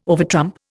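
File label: "overtrump", Indian English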